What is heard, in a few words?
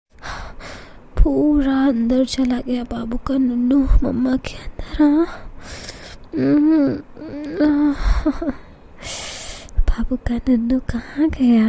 Encouraging Mother Roleplay Whispering Soft Spoken Hindi ASMR Indian Girl